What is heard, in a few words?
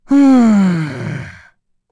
Vox Think, kr; Bernheim; kingsraid